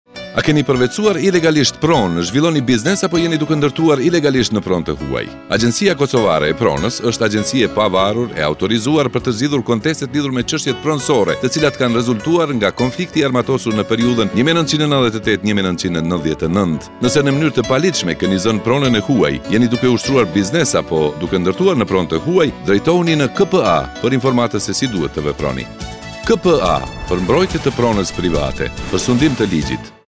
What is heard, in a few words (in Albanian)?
radio shqip